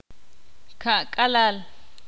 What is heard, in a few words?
ixil; cuarenta